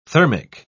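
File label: "thermic", British English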